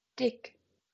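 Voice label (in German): "dick", German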